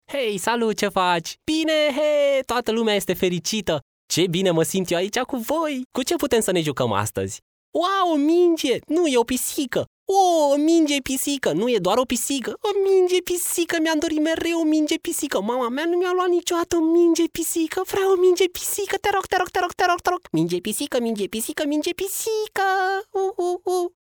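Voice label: romanian male character; voice demos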